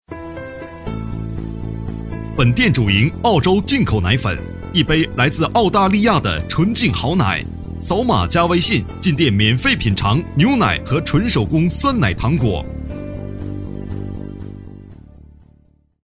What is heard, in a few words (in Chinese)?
促销